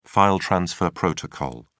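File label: "file transfer protocol", English, British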